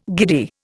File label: "giddy", Indian